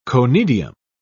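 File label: "conidium", British English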